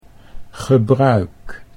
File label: "gebruik", Dutch